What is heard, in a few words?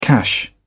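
"cash", British English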